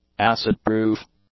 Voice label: "acid-proof", English; adjectives

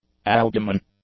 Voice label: "albumen", English; adjectives

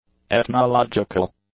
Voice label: "ethnological", English; adjectives

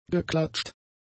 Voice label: "geklatscht", German; adjectives

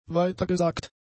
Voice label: "weitergesagt", German; adjectives

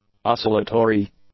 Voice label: "oscillatory", English; adjectives

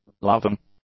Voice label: "loving", English; adjectives

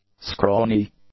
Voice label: "scrawny", English; adjectives

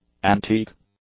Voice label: "antique", English; adjectives

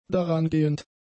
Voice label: "darangehend", German; adjectives

